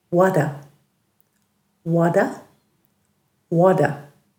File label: "wadha", Dhurga